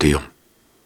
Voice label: Soft Syllables and Imaginary Words, Viking Vocal

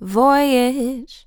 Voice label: "Voyage", Female Vocals, Dry

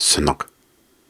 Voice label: Soft Syllables and Imaginary Words, Viking Vocal

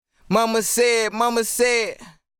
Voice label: Euphoria Vocal Phrase - Mama Said - 150 BPM, Dry; Vocal Phrases; Cymatics; Euphoria Vocal Sample Pack

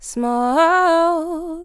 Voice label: Smoke, Vocals, Dry; GhostHack

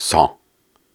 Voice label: Soft Syllables and Imaginary Words, Viking Vocal

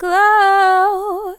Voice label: Glow, Vocals, Dry; GhostHack

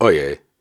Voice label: Syllables and Imaginary Words, Viking Vocal; Viking Vocal Builder; Hard

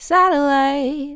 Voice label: Satellite, Vocals, Dry; GhostHack

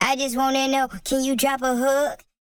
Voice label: Processed Phrase, I Just Wanna Know, 170 BPM; Vocal Phrases; Cymatics; Euphoria Vocal Sample Pack

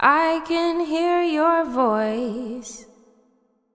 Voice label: I can hear your voice, Vocals, Wet; GhostHack

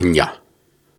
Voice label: Syllables and Imaginary Words, Hard, Viking Vocal

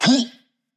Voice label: "Who", Processed Chant, Vocal; Cymatics; Euphoria Vocal Sample Pack

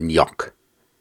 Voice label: Syllables and Imaginary Words, Hard, Viking Vocal

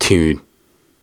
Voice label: Soft Syllables and Imaginary Words, Viking Vocal

